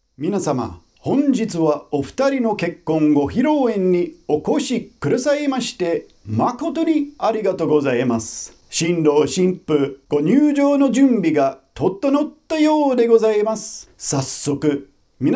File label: wedding mc example standard